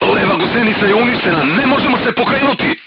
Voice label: voice message left track knocked down, crew tanks serbian